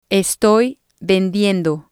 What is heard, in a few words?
"estoy vendiendo", spanish